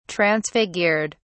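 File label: "transfigured", British English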